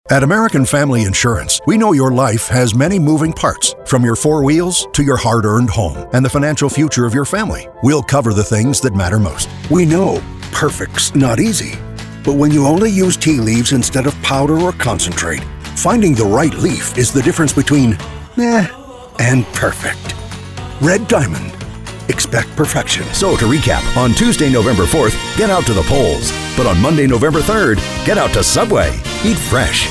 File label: Commercial